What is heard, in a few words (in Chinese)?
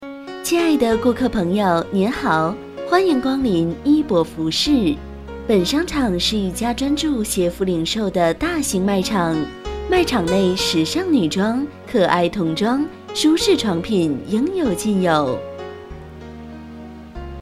商场广播